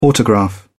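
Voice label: "autograph", British English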